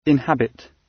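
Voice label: "inhabit" British English; Time, history; Thời gian, lịch sử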